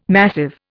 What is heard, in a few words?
"massive", Indian